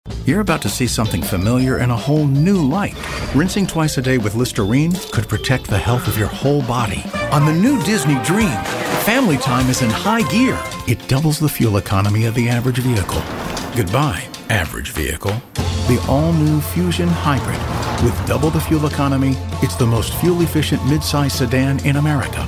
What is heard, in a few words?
american commercial